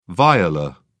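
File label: "viola", English, British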